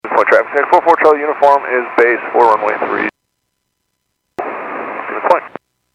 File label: Airports 122.7, Radio; KSTE